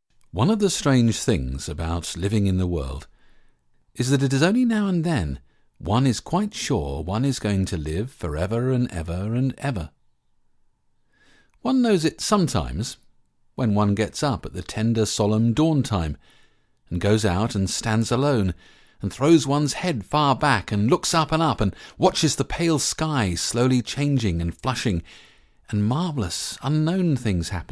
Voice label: Audiobook sample